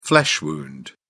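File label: "flesh wound", British English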